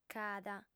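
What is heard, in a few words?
"cada", Sardinian, female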